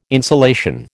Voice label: "insolation", American